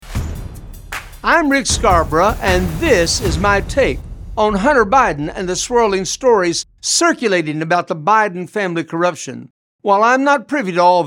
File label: Radio Spot; Hunter Biden